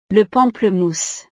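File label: "le pamplemousse", French; frutas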